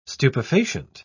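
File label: "stupefacient", English, uk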